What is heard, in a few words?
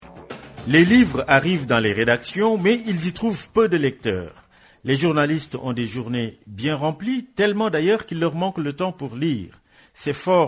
african fr